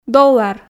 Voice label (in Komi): "доллар", Komi